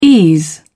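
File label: "ease", British English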